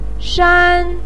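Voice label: "shan", Mandarin pinyin, first tone; 上; shàng shān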